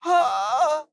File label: Hurt; Morty